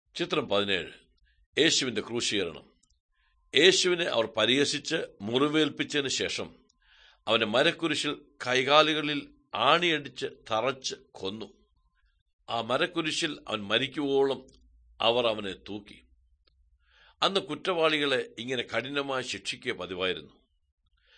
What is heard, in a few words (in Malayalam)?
good news malayalam, drama